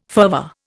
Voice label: "fervour", Indian

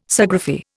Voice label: "Sciagraphy", Indian

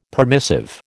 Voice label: "permissive", American

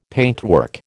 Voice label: "paintwork", American

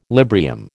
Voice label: "Librium", American

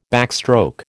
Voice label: "backstroke", American

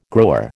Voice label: "grower", American

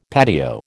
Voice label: "patio", American

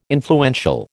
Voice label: "influential", American

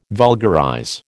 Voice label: "vulgarize", American

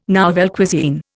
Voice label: "nouvelle cuisine", Indian

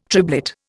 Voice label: "triblet", Indian

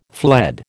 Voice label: "fled", American

